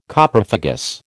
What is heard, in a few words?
"Coprophagous", American